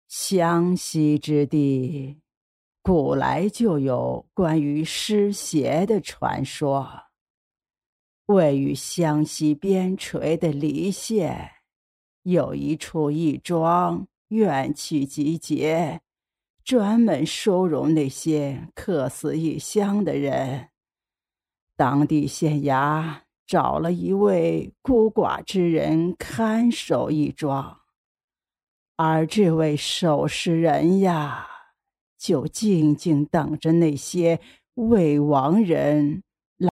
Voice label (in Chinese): 模仿 游戏老太婆